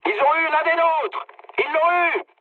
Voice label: aircraft destroyed mood med, speech fr

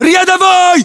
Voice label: rank private, ru; localized english

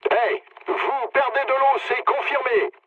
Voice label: damaged water mood low, speech fr; War Thunder

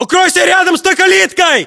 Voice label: order cover gate, ru; localized english

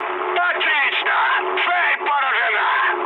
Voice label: bomb success, mood high, speech ru; War Thunder

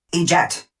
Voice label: eject, Titan, EN, Tone Voice; Titanfall 2

